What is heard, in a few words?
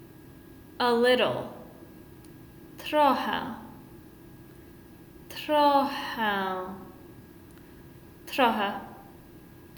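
Polish a little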